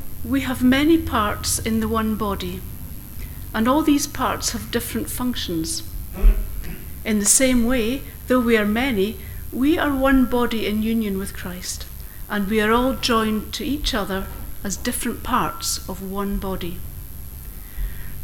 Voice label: read; Romans 12: 4-13; St. Mungo's; induction